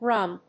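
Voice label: "Rhum", English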